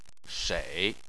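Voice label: "shei" tone 3, Chinese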